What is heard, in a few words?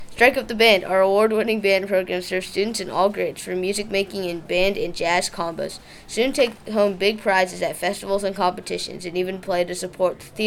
Band Narration